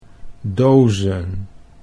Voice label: "dozen", Dutch